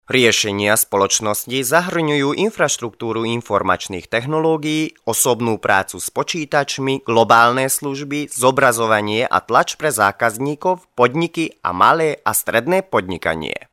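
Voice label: Slovak male voice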